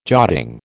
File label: "jotting", American